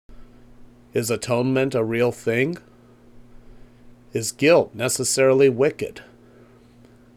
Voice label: Voiceover